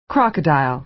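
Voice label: "crocodile", American English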